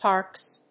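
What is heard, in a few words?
"harps", English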